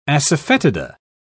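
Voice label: "asafetida", British English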